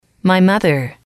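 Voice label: "mother", English, female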